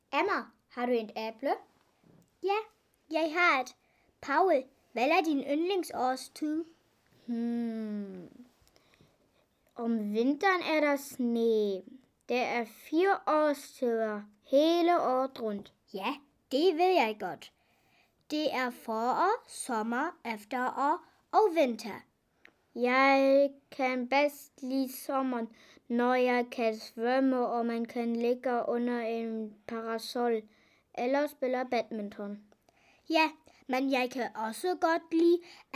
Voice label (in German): Dialog